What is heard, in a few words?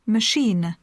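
"machine", English; poitiers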